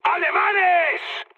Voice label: enemy detected, mood high, speech; War Thunder